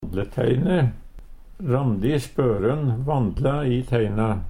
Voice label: vandleteine, Numedalsmål; Vert og nytta som "vandleteine".

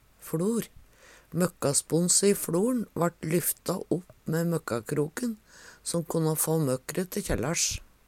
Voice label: fLor, Numedalsmål; Møkkasponse i fLor`n vart lyffta opp mæ møkkakrok`n so`n konna få møkkre te kjellarsj.

